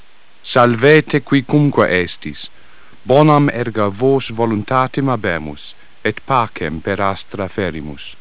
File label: latin